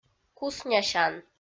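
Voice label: "куснясян", Komi, female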